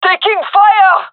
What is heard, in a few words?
taking fire, gendarmerie fem; vo; agents